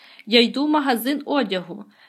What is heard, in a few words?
dialogue, Ukrainian